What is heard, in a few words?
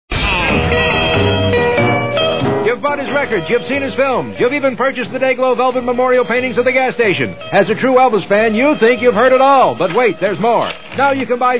Commercials; Elvis Tapes; Freekin Weird Audio